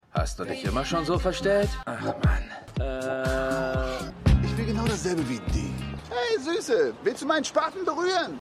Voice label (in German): Mann Bauarbeiter; Stimmproben; Everythings Trash